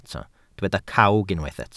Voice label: "cawg", Welsh